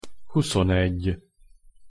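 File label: "huszonegy", Hungarian pronunciation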